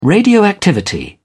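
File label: "radioactivity", British English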